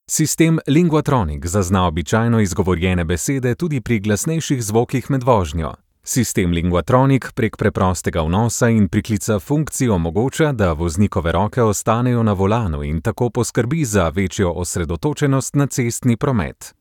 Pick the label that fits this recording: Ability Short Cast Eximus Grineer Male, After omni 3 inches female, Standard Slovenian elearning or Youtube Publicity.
Standard Slovenian elearning